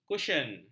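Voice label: "kusyen", Malay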